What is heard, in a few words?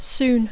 "soon", English; adverbi; aviat; properament; pròximament; d'hora